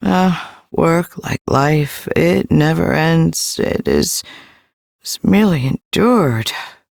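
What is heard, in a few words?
Ambient Female Sweeper, Dialog; Duviri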